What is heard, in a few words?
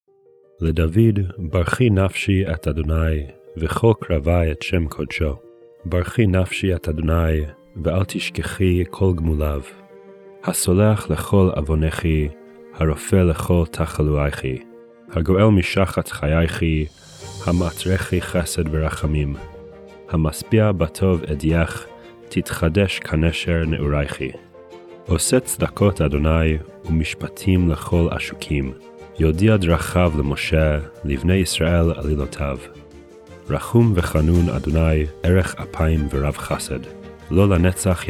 poetry and prayer psalm hebrew; Meir Soloveichik